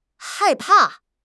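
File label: "hai4 pa4", Chinese; HSK; HSK3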